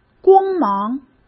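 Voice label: "光芒", Mandarin Chinese; hsk; chinese flashcards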